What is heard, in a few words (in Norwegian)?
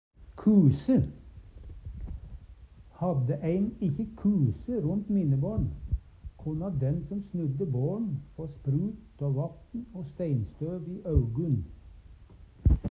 kuse, Numedalsmål